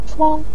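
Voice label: "chuang" Mandarin Chinese, neutral tone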